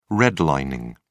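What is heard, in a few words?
"redlining", English, British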